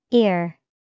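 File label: "ear", English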